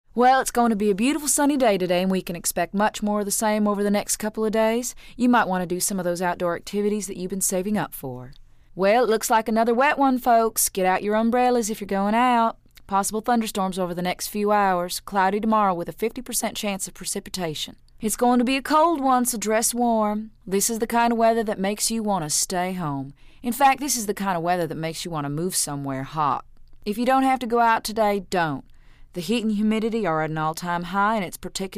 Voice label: Tv Southern Female, news